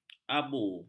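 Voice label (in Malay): "abu", Malay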